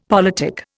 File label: "politic", Indian; Dictionary